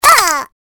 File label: evil pain; CAC ASSETS